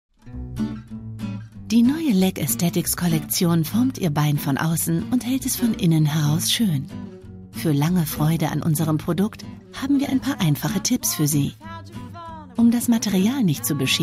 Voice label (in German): Anleitung; Falke